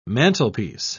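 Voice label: "mantlepiece", English, British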